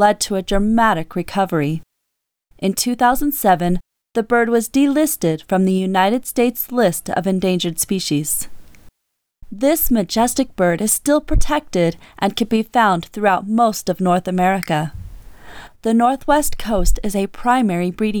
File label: eagle narration